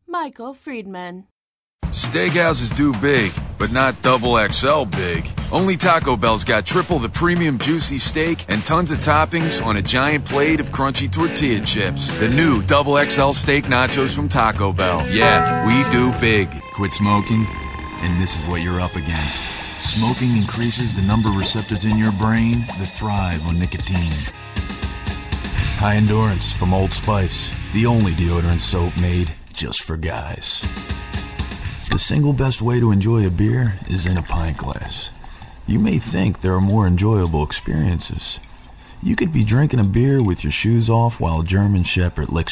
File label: VO Commercial